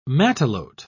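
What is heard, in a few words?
"matelote", British English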